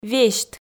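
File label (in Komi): "весьт", Komi, female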